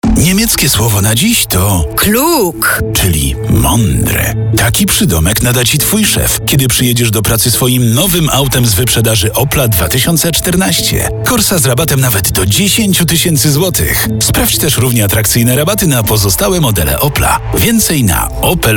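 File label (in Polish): reklama, advert; corsa klug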